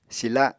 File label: "sila", apatani